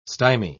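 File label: "stymy", English, uk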